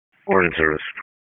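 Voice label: EMS Dispatch, p25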